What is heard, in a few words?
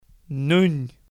gaelic tree